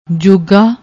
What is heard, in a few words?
"jogga", Punjabi